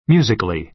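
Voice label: "musically", American English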